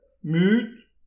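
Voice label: "mut", Breton; petit precis de prononciation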